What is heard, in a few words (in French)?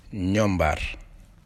"Lapin" Wolof